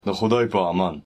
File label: pashto xudayb aman goodbye